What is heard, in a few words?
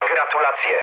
player killed enemy mood low, speech pol; War Thunder